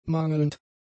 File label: "mangelnd", German; adjectives